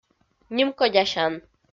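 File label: "нимкодясян", Komi-Zyrian, female; kpv audio dict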